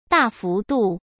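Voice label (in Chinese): "大幅度", Mandarin Chinese; chinese flashcards; hsk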